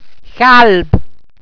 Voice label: "chalb", Walser